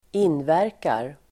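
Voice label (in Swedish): "inverkar", Swedish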